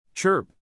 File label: "chirp", crickets, neural; animal sounds in english; Stephen